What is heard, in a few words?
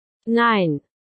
"no", dog command in german